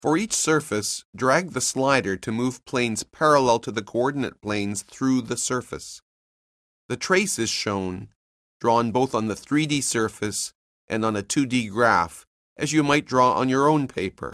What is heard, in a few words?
narration; CALCULUS 7E; cengage